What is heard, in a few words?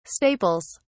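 "staples", British English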